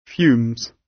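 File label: "fumes" British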